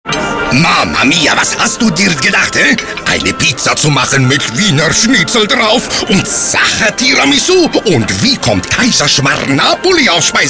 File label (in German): Like Home spot ital akzent, oesterreich